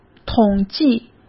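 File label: "统计", Mandarin Chinese; chinese-flashcards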